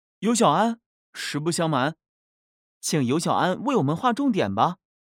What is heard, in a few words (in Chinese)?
男, 角色